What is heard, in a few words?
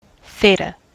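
"theta", US English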